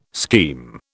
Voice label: "scheme", British

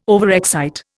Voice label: "overexcite", Indian

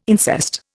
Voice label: "incest", Indian

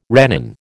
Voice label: "rennin", American

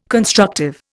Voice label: "constructive", Indian

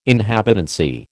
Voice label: "inhabitancy", American

